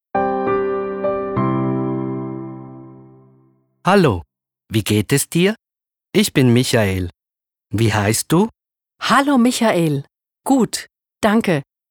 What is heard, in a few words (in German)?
Dialog